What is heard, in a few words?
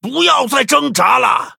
Male Dryad Threaten; zh